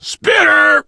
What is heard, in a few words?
spitter, survivor voice biker; l4d1; Left 4 Dead 2